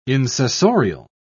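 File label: "insessorial", British English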